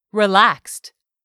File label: "relaxed", English; 3000 words